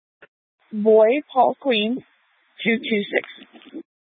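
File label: Police Dispatch, scanner audio recording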